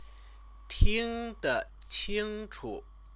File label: "tingdeqingchu", Chinese